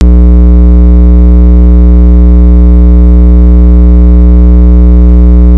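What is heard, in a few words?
"handle", spoken word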